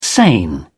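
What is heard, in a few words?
"sane", British English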